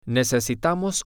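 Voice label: Spanish, male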